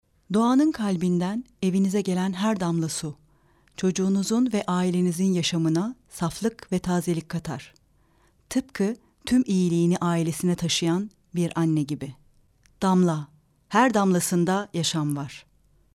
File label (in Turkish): reklam